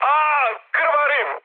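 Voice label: Serbian damaged pilot mood med, speech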